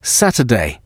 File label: saturday, m